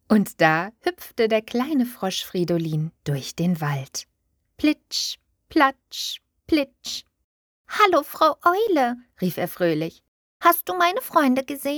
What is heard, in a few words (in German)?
Hörbuch Kinderbuch